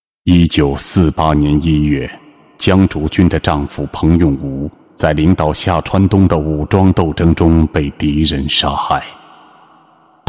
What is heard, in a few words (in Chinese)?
男, 娓娓道来 低沉版, 国语男声配音; 历史人物; 江竹筠